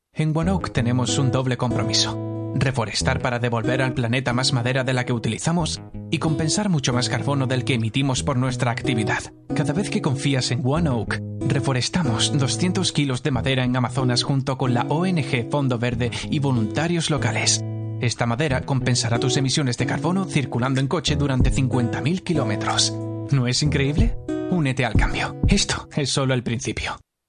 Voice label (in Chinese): 西班牙语男 轻快, 外语; 小语种